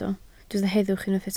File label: "heddwch", Welsh